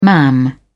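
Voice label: "mam", English, uk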